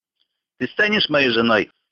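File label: will you marry me, male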